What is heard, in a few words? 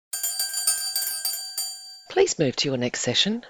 bell please move to your next session